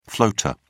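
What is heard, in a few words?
"floater", British English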